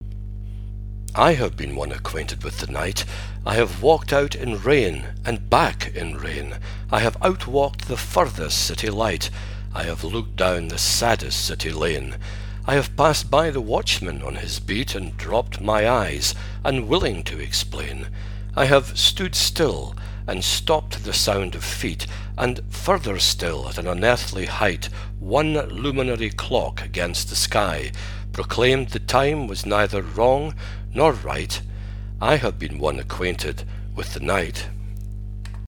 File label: British